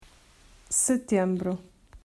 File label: "Set", Portuguese